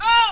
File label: "oh" female; ooh ahh